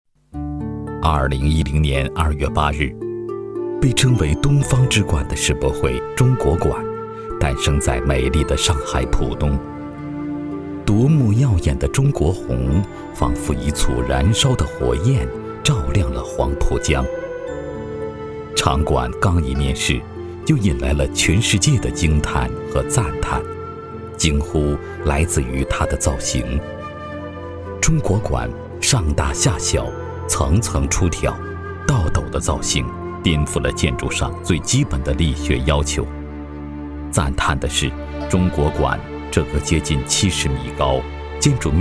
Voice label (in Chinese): 男 专题 纪录风格 文化类醇厚自然风格, 国语男声配音, 浑厚低沉